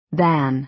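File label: "than", American English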